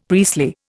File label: "priestly", Indian; Dictionary